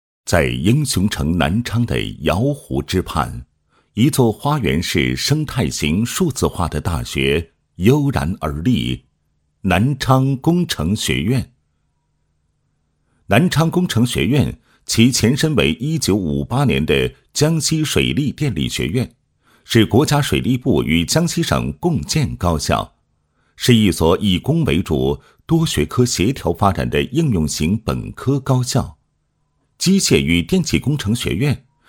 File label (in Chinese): 男, 学校宣传; 南昌工程学院